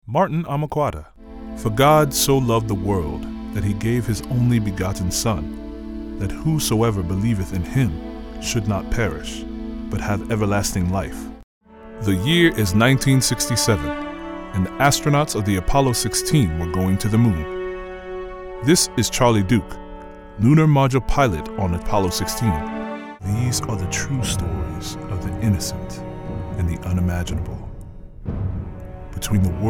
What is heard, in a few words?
Narration